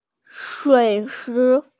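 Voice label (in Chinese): "shui3chi2", Mandarin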